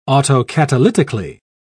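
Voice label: "autocatalytically", British English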